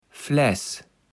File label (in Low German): "Fläß", Platt